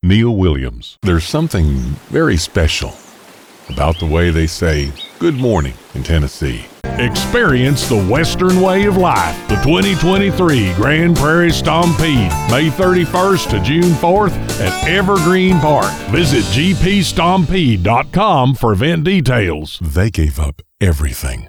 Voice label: Commercial